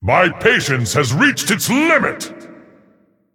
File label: Male Titan Forged Emote Time